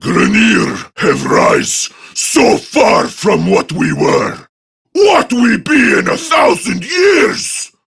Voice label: Patrol Prime Grineer Male; Tau; Battle Barks